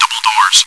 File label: chitchat, bot, voice commands; svencoop